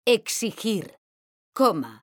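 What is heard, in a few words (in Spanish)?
"exigir coma", Spanish, female; mekanta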